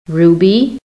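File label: "ruby", American English; PHONETIC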